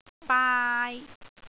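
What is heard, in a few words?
"baai3", Cantonese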